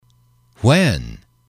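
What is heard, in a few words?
"when", English, male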